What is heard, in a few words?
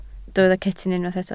"cetyn", Welsh